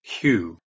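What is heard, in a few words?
"hue", English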